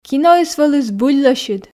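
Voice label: "киноыс вӧлі збыль лӧсьыд", Komi, female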